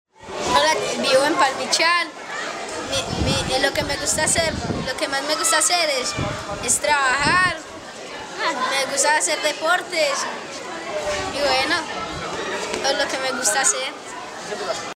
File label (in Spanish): Mensaje nino; Dto Antioquia; Mpio San Carlos; mi voz es tu voz; Audios de presentacion ninos y ninas de la obra musical